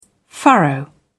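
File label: "furrow", British English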